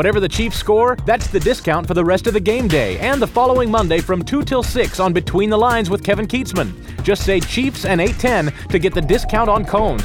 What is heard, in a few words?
club commercial